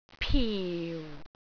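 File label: "piu4", Cantonese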